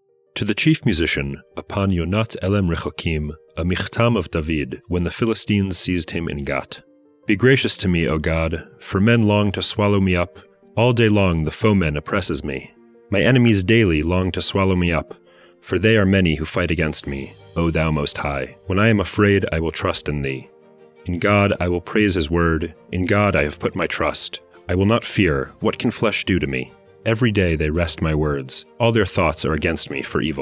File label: poetry and prayer psalm english